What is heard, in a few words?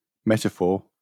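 "metaphor", British English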